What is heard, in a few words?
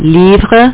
book, French; Java Developer's Companion; WordMatch